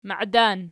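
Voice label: "maidan" nominative, Archi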